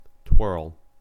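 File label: "twirl", US English; pronunciations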